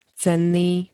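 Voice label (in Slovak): "cenný", Slovak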